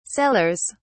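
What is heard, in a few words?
"cellars", British English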